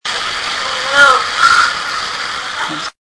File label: child